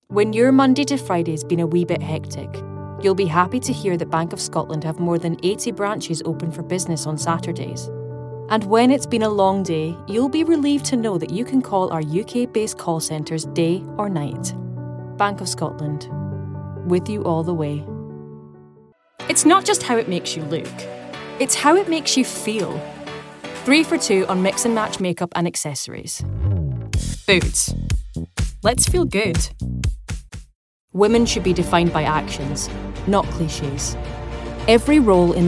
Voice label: Commercials

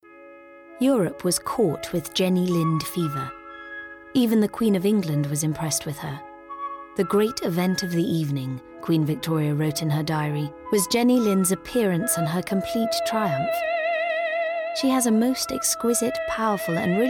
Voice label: narration; 20s-30s. Female. Studio. South African.

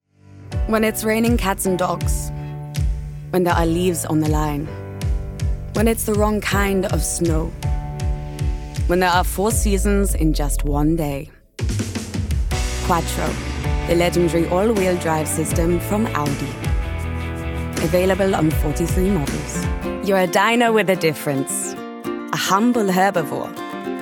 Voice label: commercial reel German accent

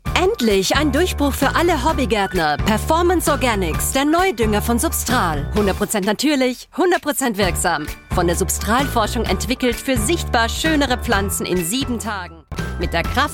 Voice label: German

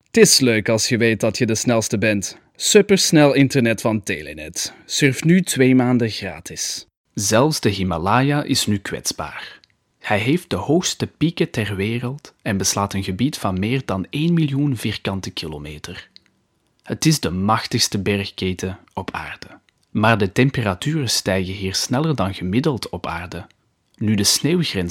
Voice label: Flemish; Flemish, Male, Home Studio, 30s-50s

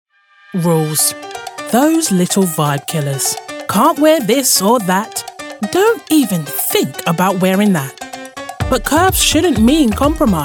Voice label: Commercial

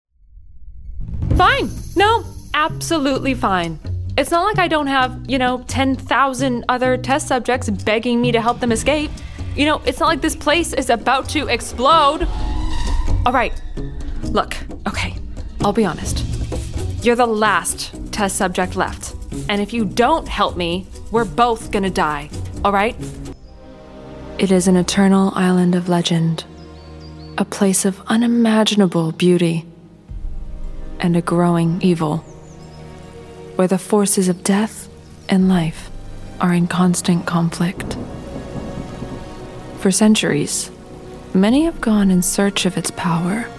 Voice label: Computer Games, american

